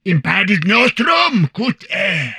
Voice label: humanoid portrait male; Stellaris; humanoids